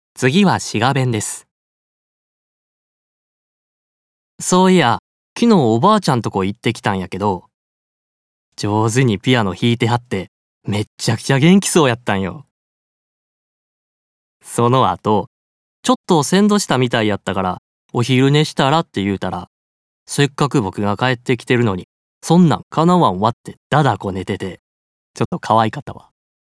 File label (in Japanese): 滋賀弁